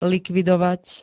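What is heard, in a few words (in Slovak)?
"likvidovať", Slovak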